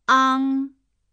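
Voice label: "ang", Chinese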